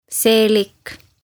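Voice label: "seelik", Estonian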